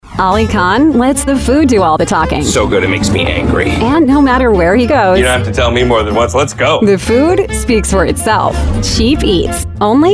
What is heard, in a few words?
Promo